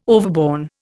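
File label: "overborne", Indian English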